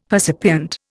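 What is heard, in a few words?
"percipient", Indian English; Dictionary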